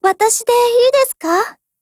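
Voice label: formation, Japanese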